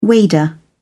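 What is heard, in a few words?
"wader", British English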